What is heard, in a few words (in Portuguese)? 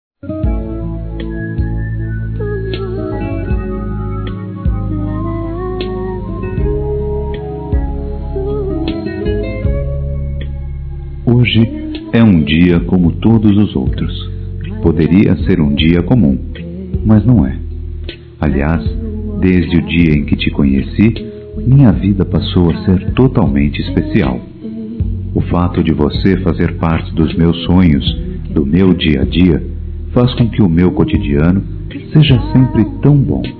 Masculino, Dia Dos namorados; Hoje é Feriado; Telemensagens 2017